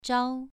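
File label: "zhao", Mandarin Chinese, second tone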